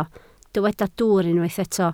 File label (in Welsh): "dwwr", Welsh